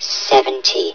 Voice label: "seventy", hev suit; Half Life Alyx

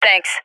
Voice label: thankful; Counter Strike Model Pack; CS:GO

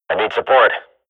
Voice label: radio bot under fire, swat; agents

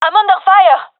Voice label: takingfire, gendarmerie fem; vo; agents

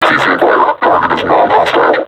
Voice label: taunt, vo combine suppressor; Half Life Alyx

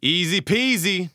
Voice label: taunt easy peasy; Counter Strike; CS:GO; taunts

